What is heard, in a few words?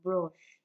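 "brush", Nigerian Pidgin, female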